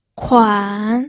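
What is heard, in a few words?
"kuan", third tone, pinyin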